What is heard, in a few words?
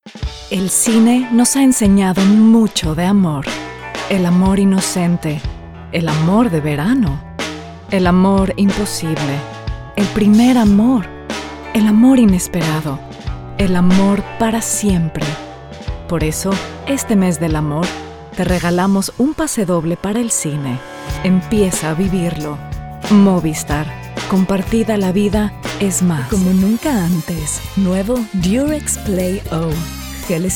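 Spanish Latin American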